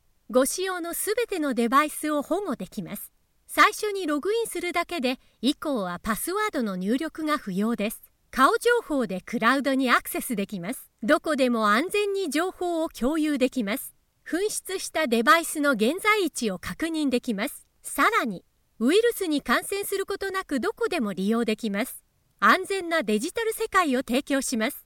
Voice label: Business Corporate

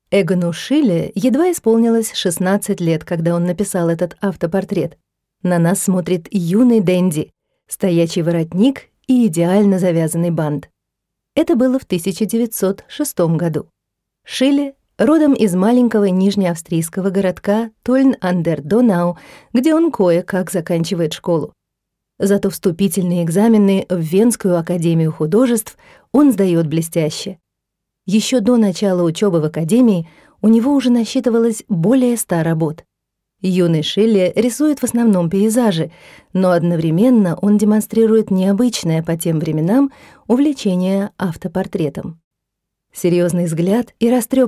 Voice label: Museum Audioguide RUS

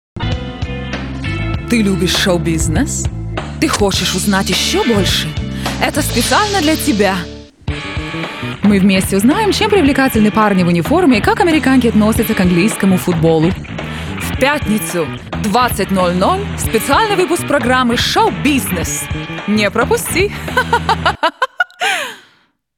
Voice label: RUSSIAN TV SHOW TEASER

